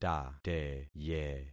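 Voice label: "tateye", concatenated syllables; Affixing experiment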